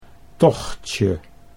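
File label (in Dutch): "tochtje", Dutch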